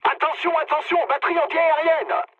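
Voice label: French damaged aa mood med; War Thunder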